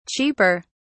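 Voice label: "cheaper", British English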